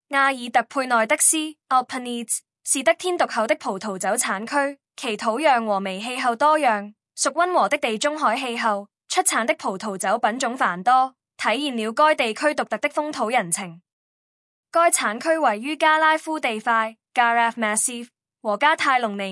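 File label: entorno Chinese; entornoyvinedos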